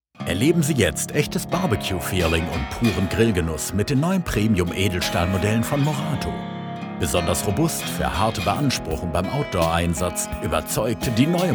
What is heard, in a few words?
Werbung - Advertisement - Radiospot